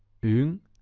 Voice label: "un" Occitan